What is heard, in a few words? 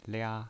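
"lia", pinyin, Mandarin Chinese, first tone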